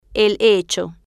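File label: "el hecho", Spanish, female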